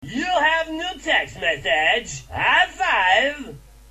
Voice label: you have new txt message no music